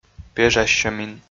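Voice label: "пежассьӧмин", Komi, male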